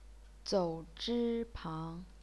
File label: zou3 zhi1 pang2, chinese pinyin